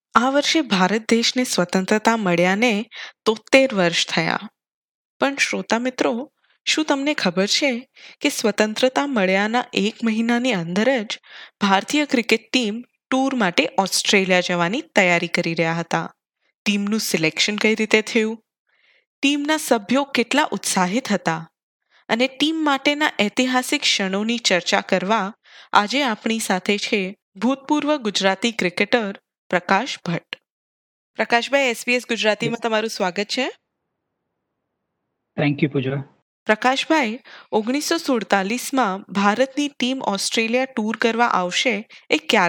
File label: gujarati; જાણો છો? આઝાદી બાદ ભારતીય ક્રિકેટ ટીમનો પ્રથમ વિદેશ પ્રવાસ ઓસ્ટ્રેલિયા હતો; SBS Gujarati